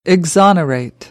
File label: "exonerate", American English; ɑː - ɒ